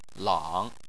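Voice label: "lang", Chinese, third tone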